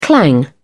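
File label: "clang", British English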